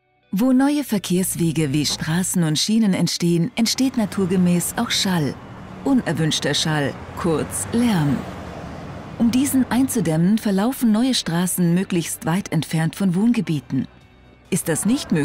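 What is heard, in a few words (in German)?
Erklärfilm Lärmschutz sachlich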